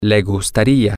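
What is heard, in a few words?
you would like, Spanish, male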